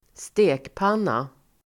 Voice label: "stekpanna", Swedish

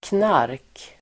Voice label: "knark", Swedish

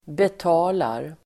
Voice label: "betalar", Swedish

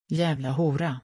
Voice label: "Jävla hora", Swedish

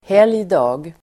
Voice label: "helgdag", Swedish

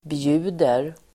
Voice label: "bjuder", Swedish

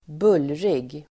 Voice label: "bullrig", Swedish

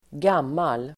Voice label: "gammal", Swedish

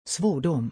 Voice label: "Svordom", Swedish

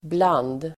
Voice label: "bland", Swedish

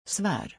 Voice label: "Svar", Swedish

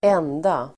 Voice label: "enda", Swedish

